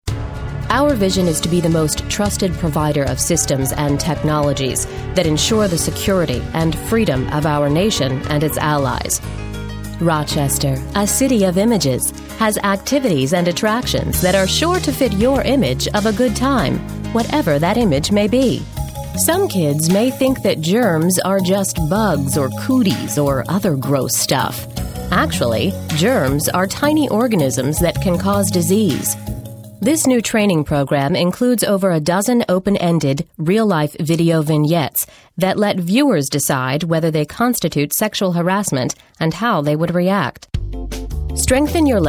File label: narration